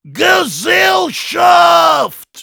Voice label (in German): Heavy incoming, de; "GESELLSCHAFT!"